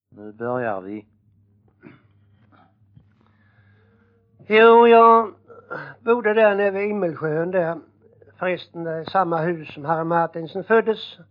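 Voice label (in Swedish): Jämshög Blekinge, svenska sagor dialekter; Folkminnen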